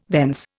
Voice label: "thence", Indian